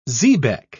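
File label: "xebec", English, British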